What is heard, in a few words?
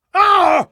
pain, metropolice; hl2